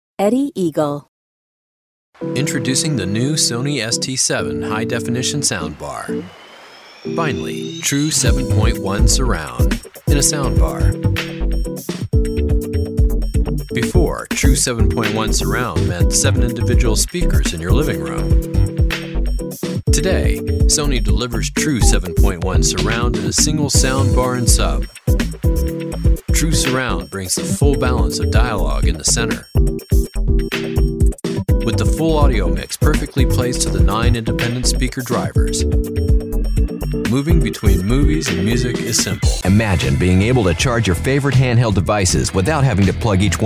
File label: Explainer